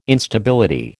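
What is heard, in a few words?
"Instability", American English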